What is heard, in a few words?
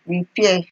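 "repair", Nigerian Pidgin, female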